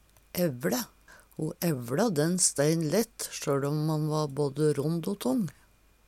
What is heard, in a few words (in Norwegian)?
evLe, Numedalsmål